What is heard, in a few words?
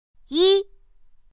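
"yī", Mandarin Chinese pinyin; pinyin2; pinyinji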